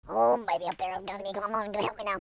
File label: "pray", speech